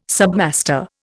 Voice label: "submaster", Indian